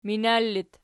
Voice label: "minar", Archi